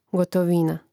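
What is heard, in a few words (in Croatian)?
"gotovina", hrvatski; neizvorni; mreznik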